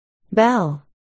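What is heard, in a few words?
"bell", spoken word, articulation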